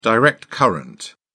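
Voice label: "direct current", British English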